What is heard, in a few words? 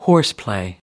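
"horseplay", American English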